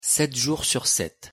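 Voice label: "7 jours sur 7", French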